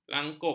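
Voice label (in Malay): "langkup", Malay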